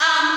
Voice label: "um" female, Voice; ooh ahh

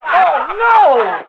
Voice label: "oh no" cartoon; ooh ahh

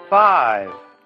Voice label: "five", male; Voice; numbers and letters

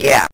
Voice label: "yeah", evil; ooh ahh

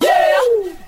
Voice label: "yeah" with woo, voice

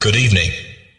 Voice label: "good evening", announcer; Voice; introductions

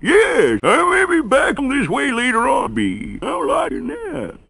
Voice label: yeah somakumlighteronbeenah, gibbrish; Voice

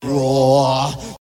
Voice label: "raw"; ooh ahh

